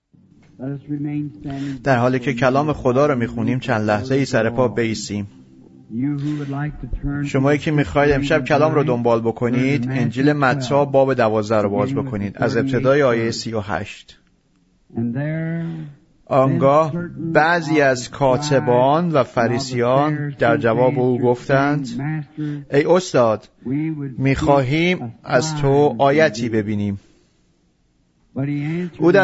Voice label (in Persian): Farsi Voice Over; A Greater Than Solomon Is Here Now